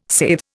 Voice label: "saithe", Indian